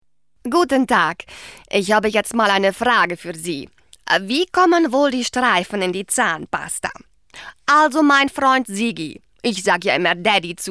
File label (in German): Akzent Russisch